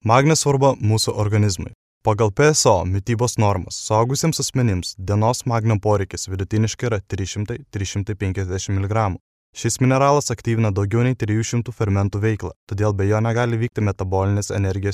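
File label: Lithuanian, narration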